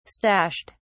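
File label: "stashed", British English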